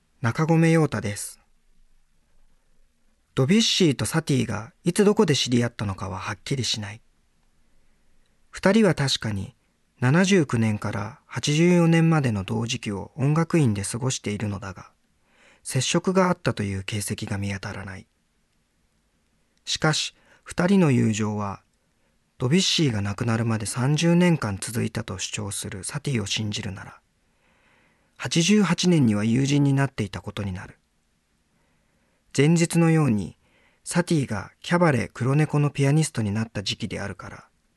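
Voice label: Voice Sample Narration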